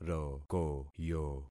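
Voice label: "rokoyo", concatenated syllables; Affixing experiment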